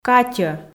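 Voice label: "катьӧ", Komi, female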